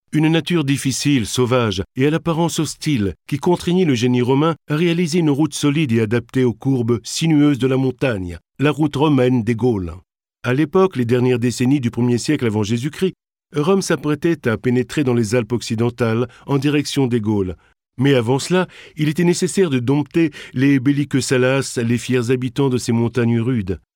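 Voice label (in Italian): francese, Demo Voci Spot Pubblicitari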